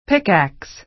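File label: "pickax", American English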